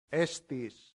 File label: "estis", Latin; santillana